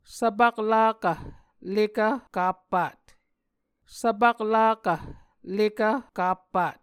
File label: "svpaklakv-lekvkappat", Muscogee